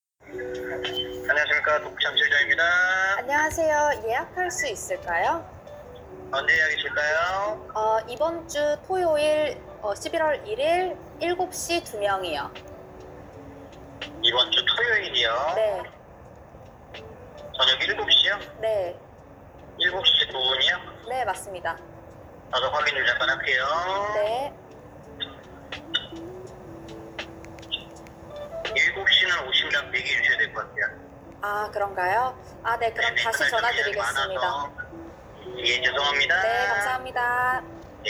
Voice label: korean speech dataset